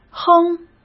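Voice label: "哼", Mandarin Chinese; chinese-flashcards; hsk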